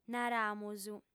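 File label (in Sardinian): "naramus", female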